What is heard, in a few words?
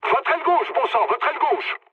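French left wing mood low; War Thunder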